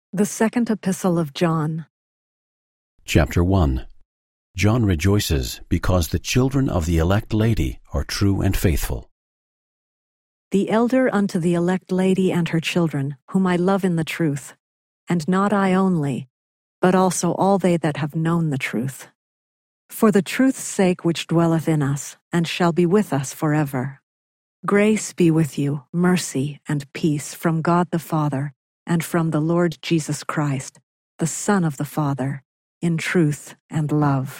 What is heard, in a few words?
female voice, eng; john 01; the new testament